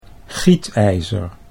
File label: "gietijzer", Dutch